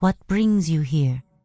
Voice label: Night Elf Female Priestess NPC Greeting